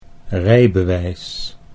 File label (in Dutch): "rijbewijs", Dutch